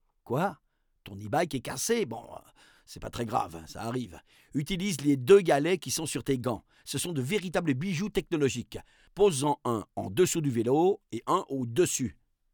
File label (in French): narrateur ebike cassé; La-Fabrik